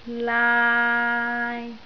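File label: "laai3", Cantonese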